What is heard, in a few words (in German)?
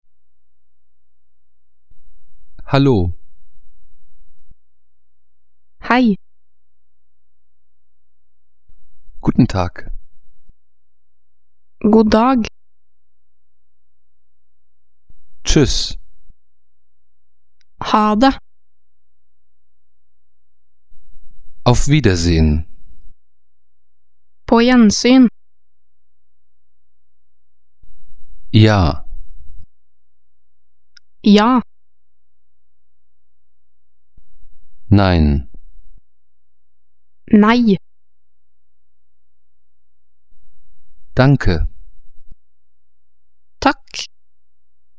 norwegisch